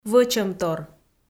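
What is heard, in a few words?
"вӧчӧмтор", Komi, female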